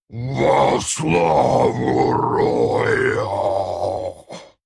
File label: Male Tauren Play; Hearthstone; датамайн обновления 5.0